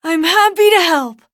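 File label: level up, English; cookie run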